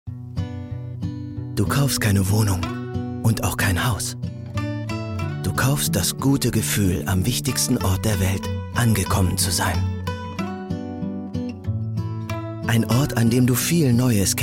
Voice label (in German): werbespot; bausparkasse schwaebisch hall; wichtigster ort der welt